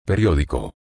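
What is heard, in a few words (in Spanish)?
"periodico", español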